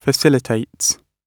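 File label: "facilitates", British English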